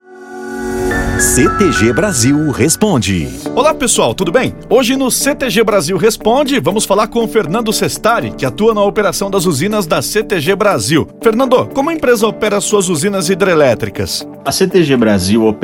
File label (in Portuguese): podcast corporativo producao audio; ctg brasil